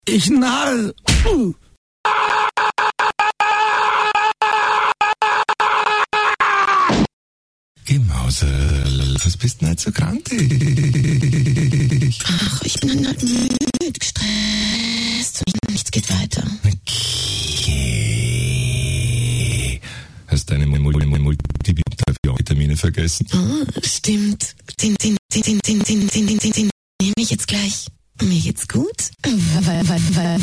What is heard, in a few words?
commercials